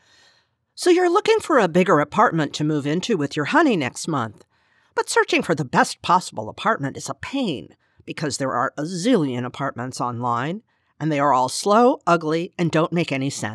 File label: Explainer Sample; HouseMap